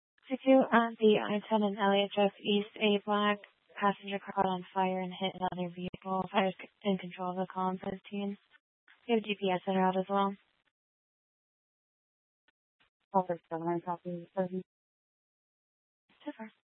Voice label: Tempe PD, scanner; Rgnl Wireless Co-op (RWC)